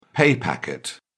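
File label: "pay packet", British English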